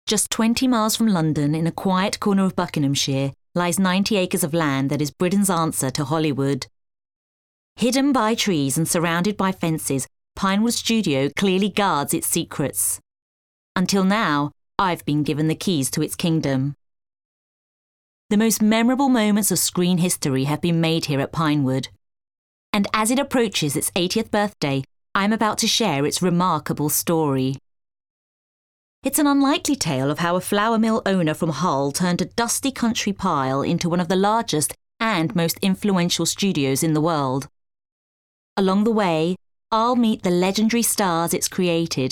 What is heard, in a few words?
english british narration